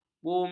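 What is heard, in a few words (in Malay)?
"bumi", Malay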